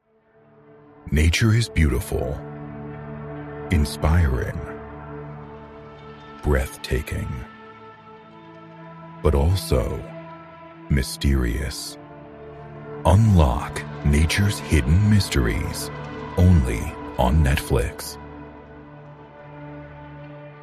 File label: english american documentaries